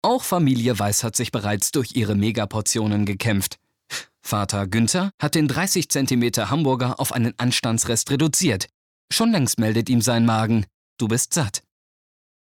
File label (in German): kommentar